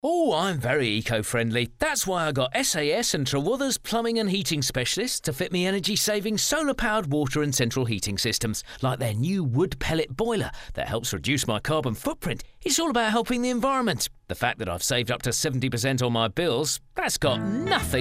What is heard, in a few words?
radio advert